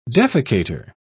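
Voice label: "defecator", British English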